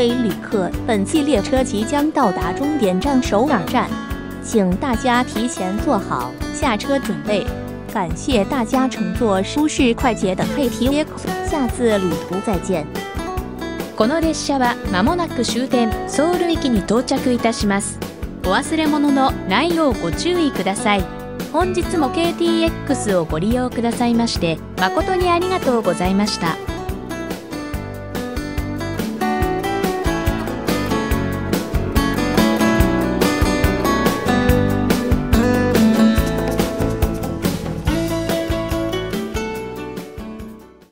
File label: approach seoul, Train Announce